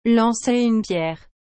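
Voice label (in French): "lancer une pierre", French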